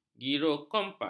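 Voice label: "girokompas", Malay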